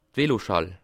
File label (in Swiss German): "veloschall", Alsace